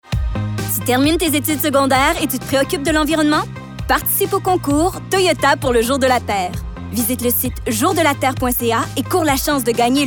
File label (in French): Pub Toyota; Toyota Jour de la terre